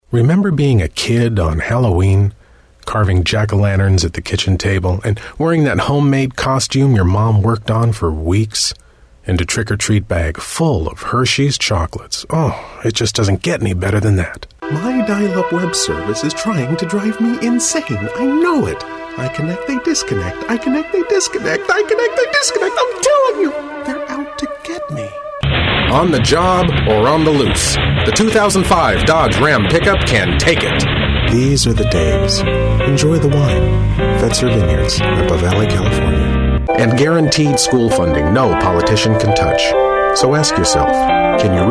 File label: male voice